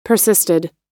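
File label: "persisted", American English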